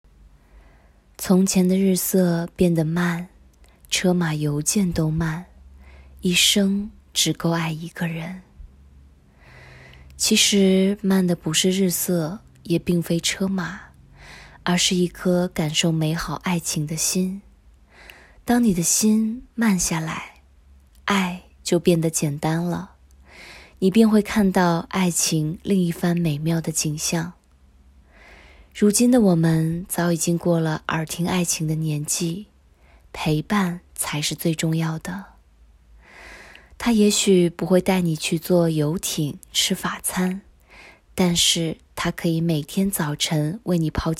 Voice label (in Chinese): 情感 独白